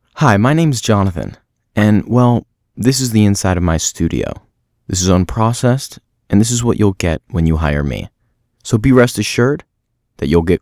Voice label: Studio Quality